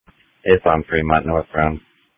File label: Police Tactical Encrypted